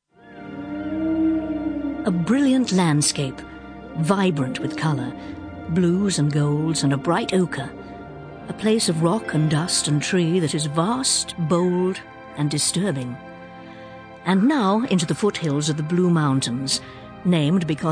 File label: Narrative Compilation